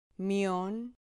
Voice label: "mion", Spanish syllable